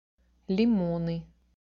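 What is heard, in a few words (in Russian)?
"Лимо́н", Russian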